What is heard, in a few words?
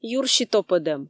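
"юрси топӧдӧм", Komi, female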